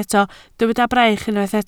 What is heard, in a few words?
"braich", Welsh